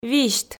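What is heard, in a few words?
"висьт", Komi, female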